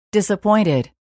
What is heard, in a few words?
"disappointed"; naver